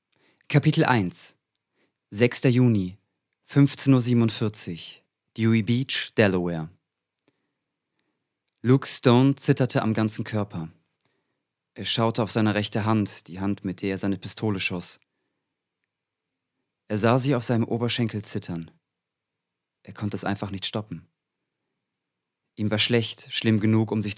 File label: german male